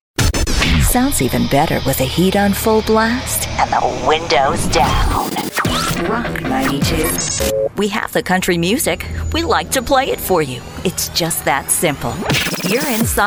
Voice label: US English Radio Imaging, Female